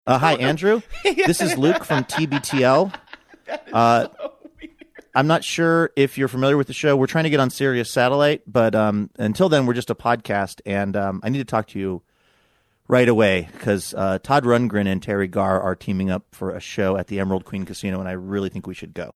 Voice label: acting like hes leaving voicemail message

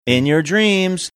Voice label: saying i'm your dreams sing songy

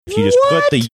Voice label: high pitched what

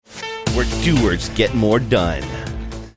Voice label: saying where doers get more done ad song; over home depot

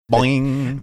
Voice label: saying boing spring like effect

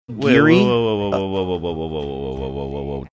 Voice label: series of whoas

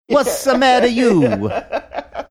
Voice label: whats a matter you faux italian

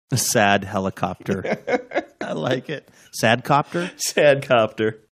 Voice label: sad copter

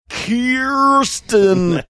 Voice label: saying funny drawn out

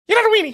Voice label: saying you're not a weenie really fast

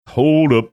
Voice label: saying hold up funny

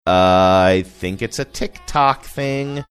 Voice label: saying i think its tiktok thing sing songy

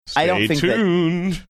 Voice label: saying stay tuned sing songy

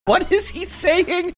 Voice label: saying what is he saying funny

